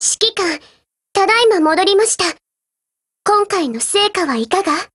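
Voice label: OPERATION OVER Japanese, Voice; GF Alarm; Desert Eagle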